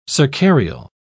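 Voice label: "cercarial", British English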